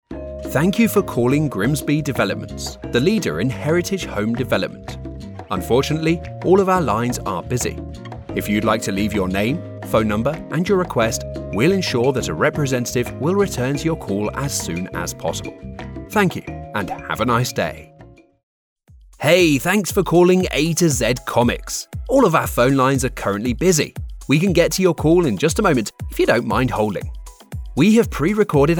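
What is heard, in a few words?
IVR, with SFX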